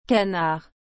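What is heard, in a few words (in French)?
"canard", French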